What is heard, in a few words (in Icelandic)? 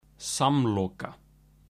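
"samloka", Icelandic; matarhefti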